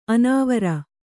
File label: "ಅನಾವರ", Kannada; anāvara; excessive; being too much; immoderate; going beyond the limits.